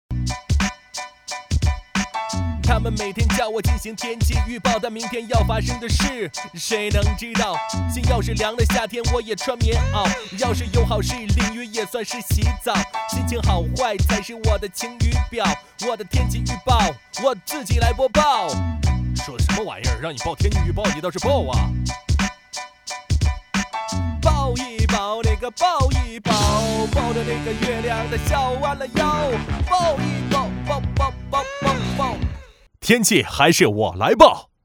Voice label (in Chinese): 广告天气预报说唱; 广告天气预报说唱成Z